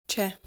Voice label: "Cè", Italian